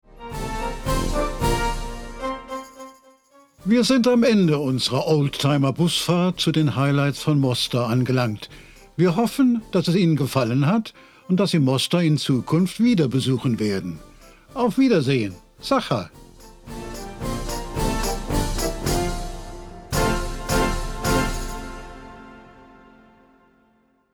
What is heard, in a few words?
CONCLUSION GERMAN